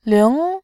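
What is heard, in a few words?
"ling", Mandarin, tone 2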